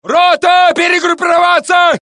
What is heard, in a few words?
Russian; mohaa